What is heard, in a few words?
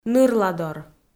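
"нырладор", Komi, female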